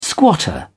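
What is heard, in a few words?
"squatter", British English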